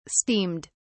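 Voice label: "steamed", British English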